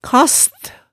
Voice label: "kast", hemsedalsmaalet tale

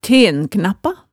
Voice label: "tenknappa", hemsedalsmaalet tale

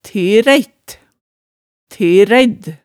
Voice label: "teræitt", hemsedalsmaalet tale

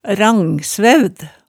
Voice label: "rangsvæcd", hemsedalsmaalet, tale

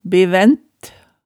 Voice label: "bevænt", hemsedals maalet tale

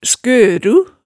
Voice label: "skøru", hemsedalsmaalet

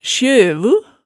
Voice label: "sjøvu", hemsedalsmaalet

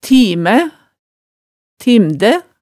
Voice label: "timæ", hemsedalsmaalet tale

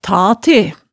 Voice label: "ta te", hemsedalsmaalet, tale

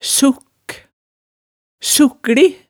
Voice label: "sukk", hemsedalsmaalet

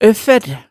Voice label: "øffær", hemsedalsmaalet tale

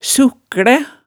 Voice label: "sukklæ", hemsedalsmaalet

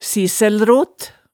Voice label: "sissælrot", hemsedalsmaalet

